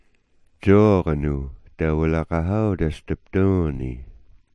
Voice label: "dzoox", smalgyax